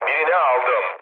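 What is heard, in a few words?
Turkish player killed enemy, mood low, speech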